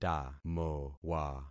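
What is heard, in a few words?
"tamowa", concatenated syllables; Affixing experiment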